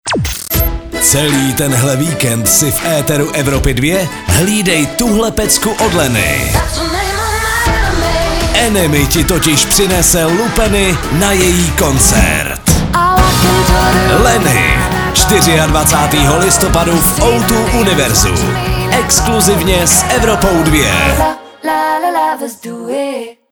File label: liner